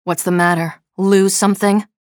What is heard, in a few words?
chatter neutral; nyx